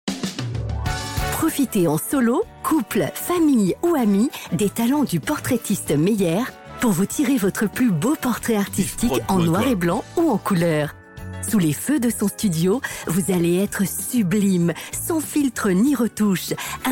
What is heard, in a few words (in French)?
publicite; Portraitiste Meyer